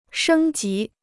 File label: "升级", Chinese